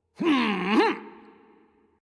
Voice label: victory, vox; smite; voicepack; hercules